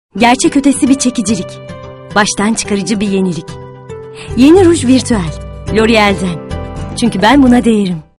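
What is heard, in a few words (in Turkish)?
enerjik tempolu, seslendirme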